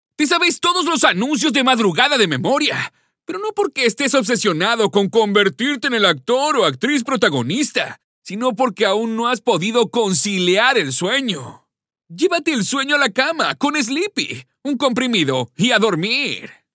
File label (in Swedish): Upprörd Latinamerikanare